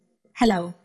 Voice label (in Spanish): Voice Acting es